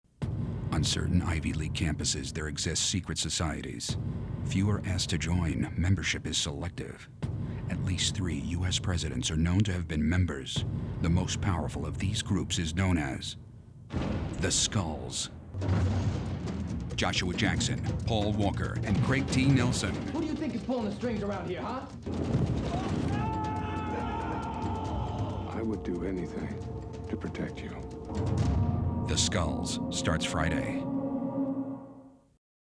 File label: Suspense Trailer; promos trailers